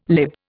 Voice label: "lib", Indian